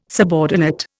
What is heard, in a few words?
"subordinate", Indian